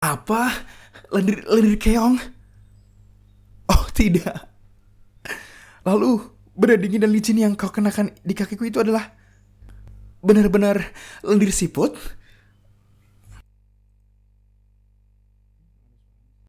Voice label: KARAKTER INDONESIA

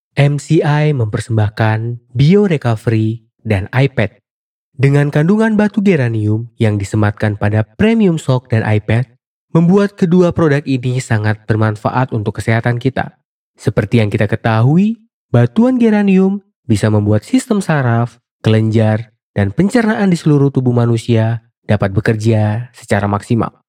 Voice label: FORMAL, voiceover; Indonesia

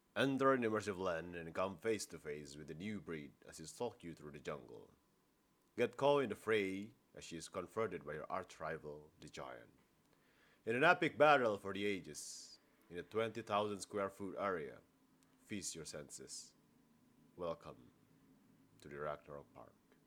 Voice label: Casual English

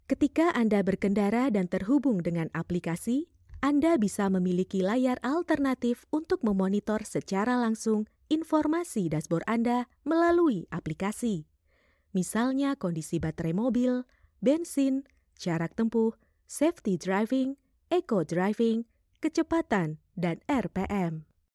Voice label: CASUAL INDONESIA

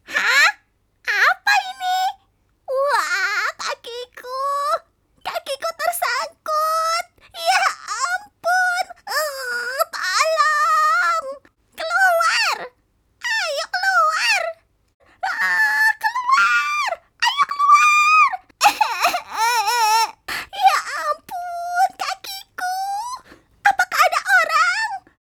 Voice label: CHARACTER INDONESIA

